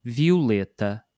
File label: "violeta", portuguese